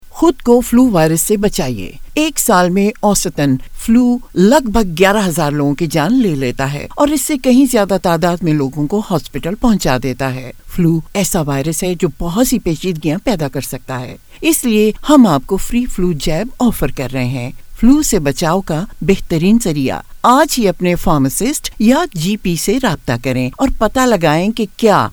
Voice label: Flu URDU All Audiences